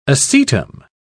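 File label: "acetum", British English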